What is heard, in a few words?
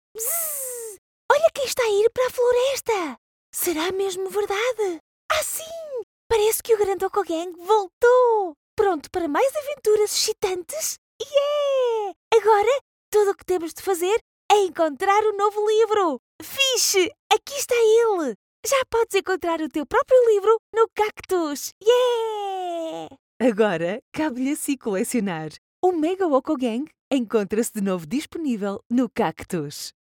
VO Child Voice